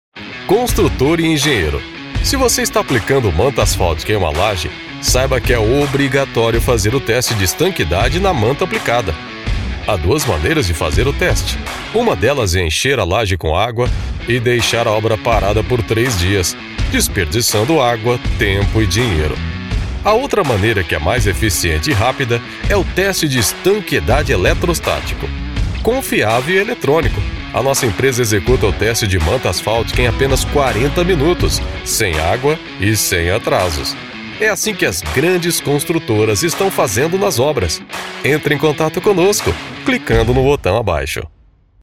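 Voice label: Brazilian Portuguese, Narration corporate internet; GoLocalise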